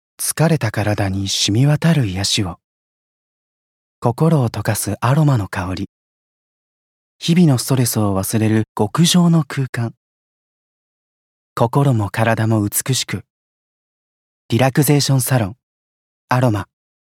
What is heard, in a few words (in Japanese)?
CM ささやき系